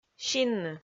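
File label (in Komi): "синны", Komi, female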